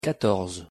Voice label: "quatorze", fr

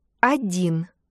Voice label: numbers in Russian 1

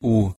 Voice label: "u" pronunciation de

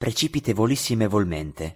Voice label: "precipitevolissimevolmente" pronunciation

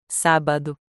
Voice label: "sabado", PT

